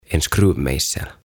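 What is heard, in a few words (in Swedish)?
"en skruvmejsel", Swedish; Ruuvimeisseli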